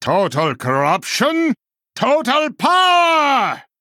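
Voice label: Male Draenei Play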